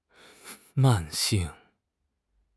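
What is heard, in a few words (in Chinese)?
"慢性", Chinese; HSK; HSK6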